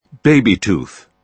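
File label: "baby tooth", British English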